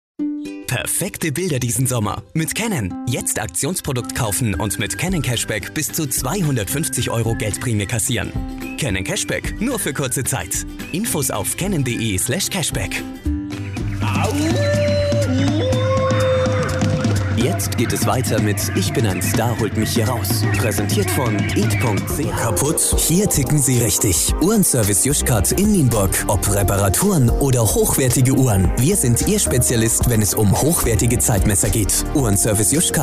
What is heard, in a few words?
german male commercial